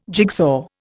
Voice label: "jigsaw", Indian